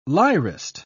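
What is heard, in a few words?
"lyrist", English, British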